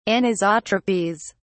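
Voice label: "anisotropies", British English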